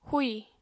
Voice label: "húì", Apatani

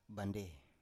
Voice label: "bandei", Biak

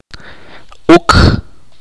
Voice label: "siete", chorti

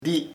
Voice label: "father padre", chamacoco

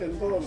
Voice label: "kengkong", Ansus; rotten

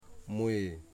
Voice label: "betelnut", Wamut

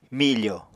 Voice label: "mifò", Apatani

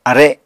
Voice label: "are", Apatani

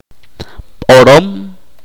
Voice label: "nueve", chorti

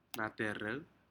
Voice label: "natarec", Aneityum; shellfish

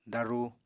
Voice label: "daruu", Apatani

